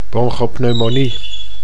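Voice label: "bronchopneumonie", Dutch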